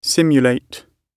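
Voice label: "simulate", British English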